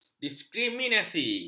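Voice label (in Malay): "diskriminasi", Malay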